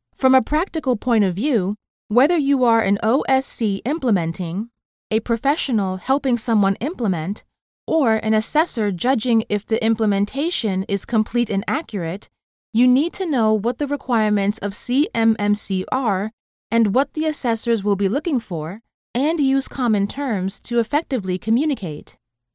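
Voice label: eLearning Sample, female voice actor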